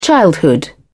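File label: "childhood", British English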